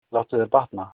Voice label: get well soon, Icelandic